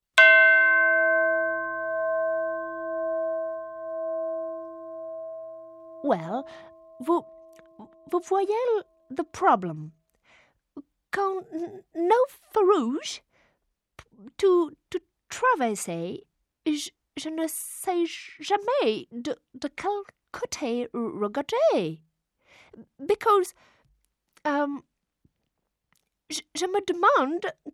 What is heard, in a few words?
British